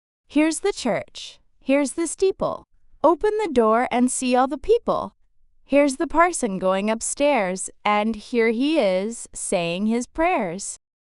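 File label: female US; Heres the Church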